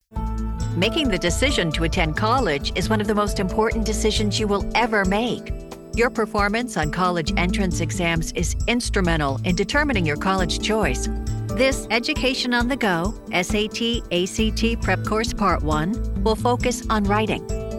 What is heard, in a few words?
E Learning - FORMAL